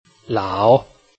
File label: "lao3", Chinese